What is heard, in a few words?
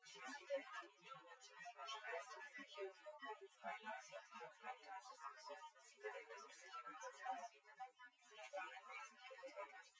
female synthconv 130ms 5cm; SiSEC08; SiSEC underdetermined